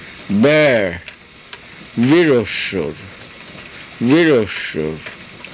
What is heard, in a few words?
"virusur", karuk